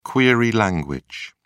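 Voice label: "query language", British English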